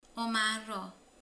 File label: "kenyér", romani